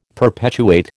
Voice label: "perpetuate", American